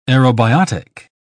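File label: "aerobiotic", British English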